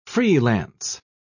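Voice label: "free-lance", British English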